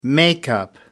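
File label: "makeup", British English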